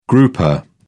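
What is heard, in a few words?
"grouper", British English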